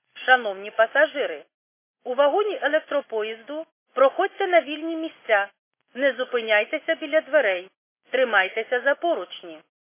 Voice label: khs st female, subway station